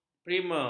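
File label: "primer", Malay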